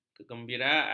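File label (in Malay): "kegembiraan", Malay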